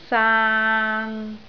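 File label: "saan3", Cantonese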